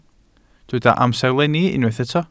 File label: "amserlenni", Welsh